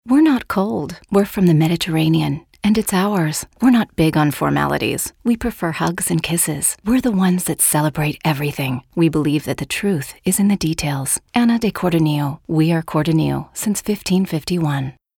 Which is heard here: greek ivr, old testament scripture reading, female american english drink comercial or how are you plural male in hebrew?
female american english drink comercial